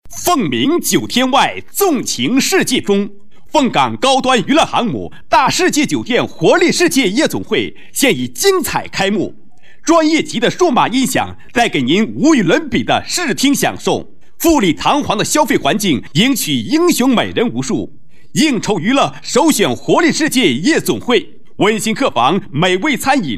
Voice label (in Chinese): 广告 拉高力度, 国语男声配音, 高音; 凤岗